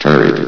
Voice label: "turret", vox; Source Engine; bshift